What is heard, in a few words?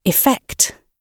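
"effect", British English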